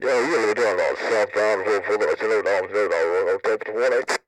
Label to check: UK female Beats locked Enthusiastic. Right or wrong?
Wrong